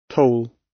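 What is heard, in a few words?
"toll", British; Flora and fauna, agriculture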